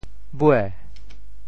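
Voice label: "bue2" 尾, Teochew; 潮州; wěi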